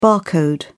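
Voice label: "bar code", British English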